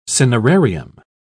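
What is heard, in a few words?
"cinerarium", British English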